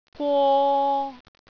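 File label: "gwo3", Cantonese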